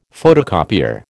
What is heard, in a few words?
"photocopier", American